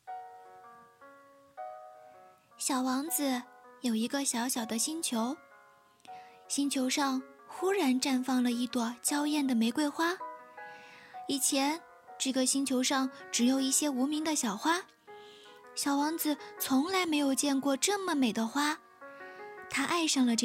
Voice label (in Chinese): 儿童读物